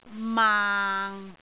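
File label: "maang6", Cantonese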